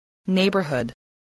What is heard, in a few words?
"neighbourhood", voice